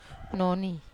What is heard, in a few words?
"noni", Wamesa